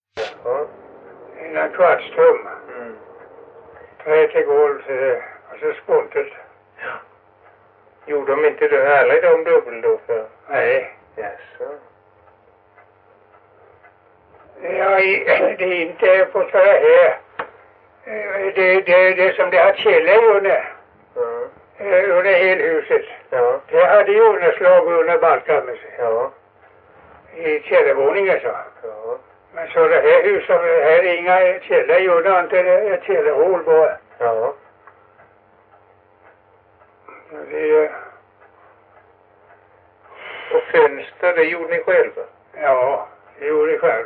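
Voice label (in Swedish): Stenåsa Öland, dialekter; Folkminnen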